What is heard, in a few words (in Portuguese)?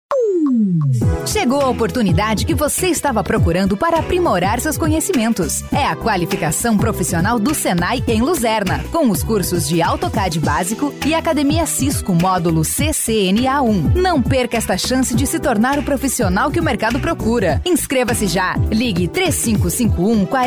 voz jovem padrao alegre e coloquial